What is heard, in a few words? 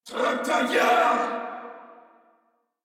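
Throw Grenade Corpus Venus Male, en; Warframe; Battle Barks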